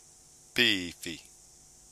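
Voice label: "piwhi", Māori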